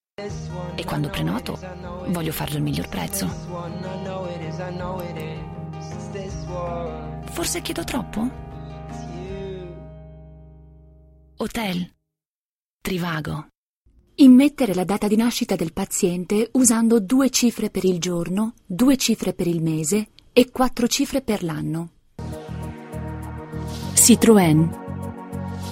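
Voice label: Italian General; GoLocalise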